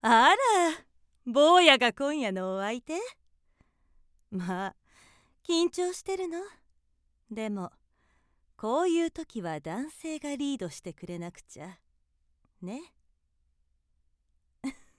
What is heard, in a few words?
sexy woman